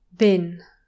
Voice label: "bin", english pronunciation